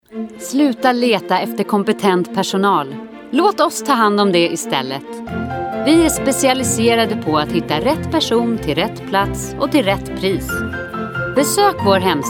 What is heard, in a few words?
commercial